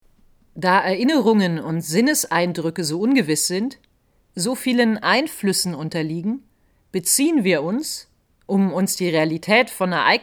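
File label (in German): Fachtext, Sprecherin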